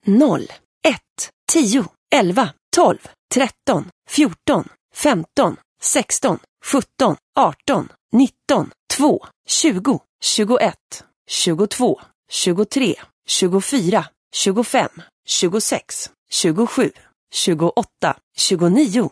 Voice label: Dealer Voice, sk, Roulette; real Dealer European Roulette Classic; sv